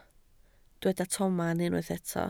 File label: "tomen", Welsh